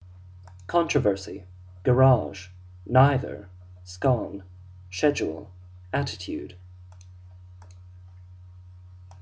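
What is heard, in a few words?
vancouver canada male, accent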